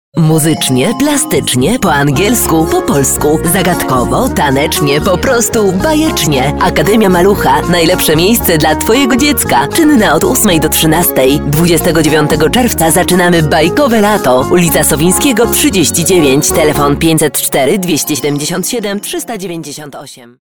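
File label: Polish, female, commercial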